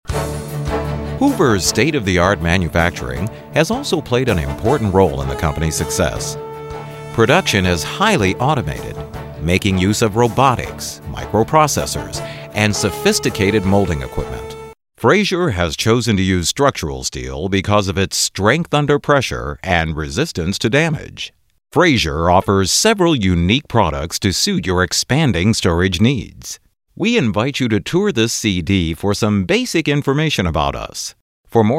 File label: narration